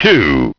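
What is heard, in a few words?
"two", English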